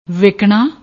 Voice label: "wickna", Punjabi; ਵਿਕਣਾ

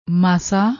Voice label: "massa", Punjabi

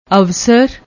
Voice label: "awasar", Punjabi

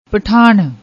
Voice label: "pathan", Punjabi

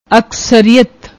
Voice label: "akshriat", Punjabi; ਅਕਸਰੀਅਤ

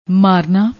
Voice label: "maarna", Punjabi; ਮਾਰਨਾ

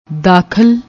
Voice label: "dakhall", Punjabi; ਦਾਖਲ

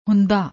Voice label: "hunda", Punjabi; ਹੁੰਦਾ

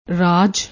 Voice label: "raj", Punjabi

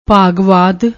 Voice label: "bhaagvaad", Punjabi

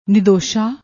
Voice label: "nidossa", Punjabi

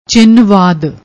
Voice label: "chinh vaad", Punjabi

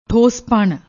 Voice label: "thospan", Punjabi

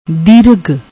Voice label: "dirag", Punjabi; ਦੀਰਘ

